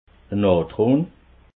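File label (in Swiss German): "natron", Alsatian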